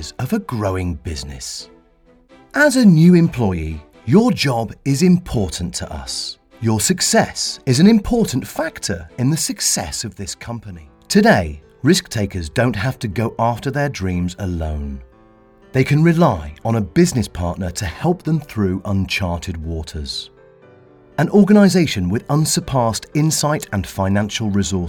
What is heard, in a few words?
Corporate